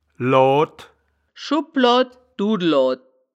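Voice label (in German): "load", Wittlicher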